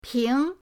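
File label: "ping", Mandarin pinyin, second tone; pinyindct-open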